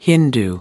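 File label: "hindu", American English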